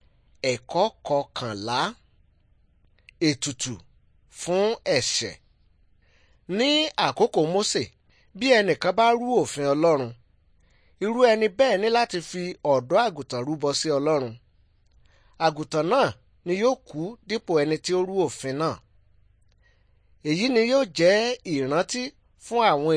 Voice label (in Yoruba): good news yoruba